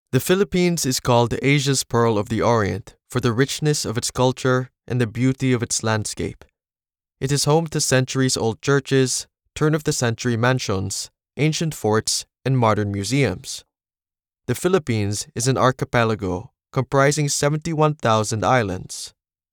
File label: Filipino Accent, english; Filipino natural; Auckland, New Zealand